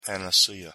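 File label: "panacea", English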